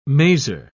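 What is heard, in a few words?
"mazer", British English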